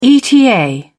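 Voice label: "eta", British English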